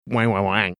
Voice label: saying something gibberish